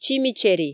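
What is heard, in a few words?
"чими чери" Komi, female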